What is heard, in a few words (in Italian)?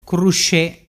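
"crusce", dialect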